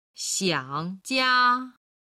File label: "xiang jia", Chinese